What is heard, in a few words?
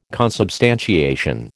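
"consubstantiation", American English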